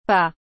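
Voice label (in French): "pas", French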